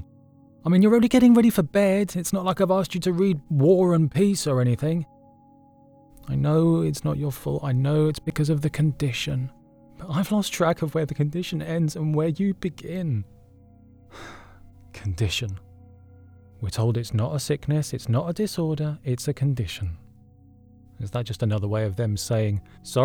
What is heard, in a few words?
Dramatic